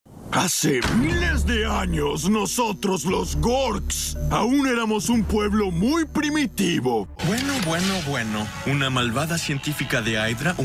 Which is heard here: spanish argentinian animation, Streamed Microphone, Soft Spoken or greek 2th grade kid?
spanish argentinian animation